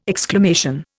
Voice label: "exclamation", Indian